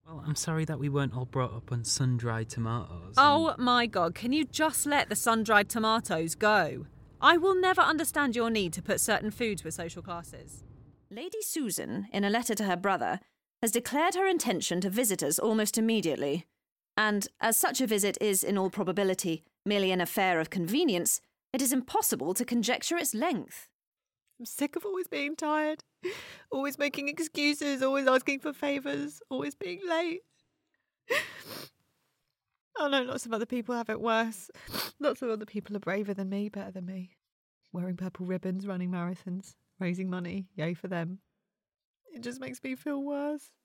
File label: Drama Narrative voicereel